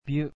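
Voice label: "byu", Japanese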